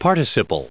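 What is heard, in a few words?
"participle", us English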